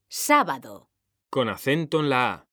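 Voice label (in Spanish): "sábado", female; mekanta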